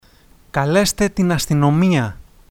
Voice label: police, Greek